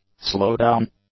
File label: "slowdown", english; nouns